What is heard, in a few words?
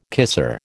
"kisser", American